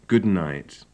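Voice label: "good night", British English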